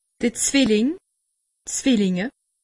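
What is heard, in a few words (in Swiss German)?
"zwilling", Alsatian; medecine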